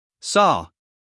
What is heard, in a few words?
"saw", US male; 1000 hours